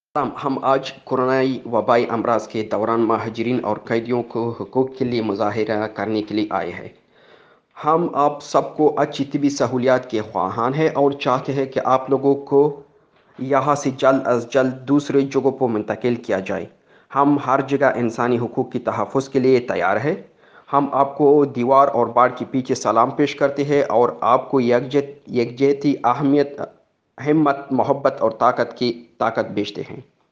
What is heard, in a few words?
Urdu